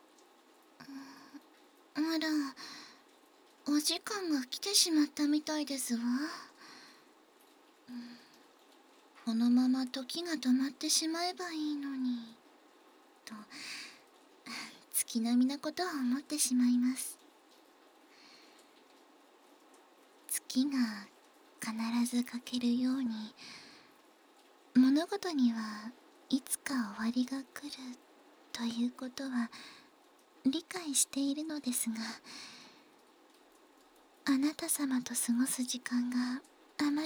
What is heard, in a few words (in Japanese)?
終章（バイノーラル 効果音あり）